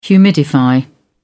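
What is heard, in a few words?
"humidify", English, British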